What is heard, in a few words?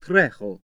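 male Incoming, greek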